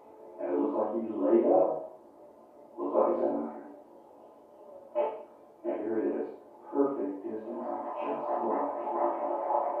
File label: Television; Golf Game With Announcer, Crowd And Players. From Down Hallway.; sports